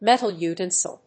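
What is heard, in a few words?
"metal utensil", English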